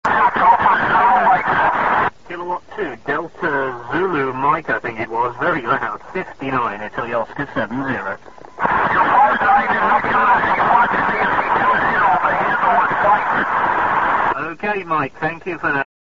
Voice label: qso